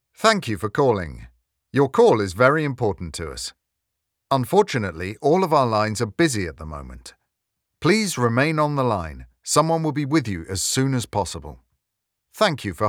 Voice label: english british ivr